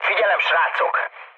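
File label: Hungarian near waypoint mood med, speech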